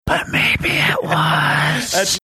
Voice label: loudly whispering but maybe it was

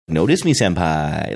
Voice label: drawn out notice me senpai

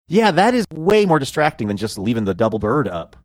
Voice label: glitch in connection while talking

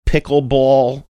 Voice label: saying "pickleball" funny

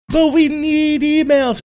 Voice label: saying but we need emails funny

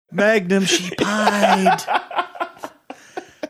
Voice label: sort of not whispering; magnum she lied

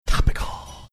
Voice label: loudly whispering topical

